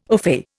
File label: "ofay", Indian